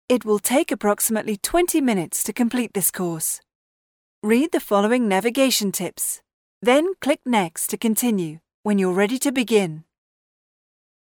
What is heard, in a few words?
medical e-learning; Baxter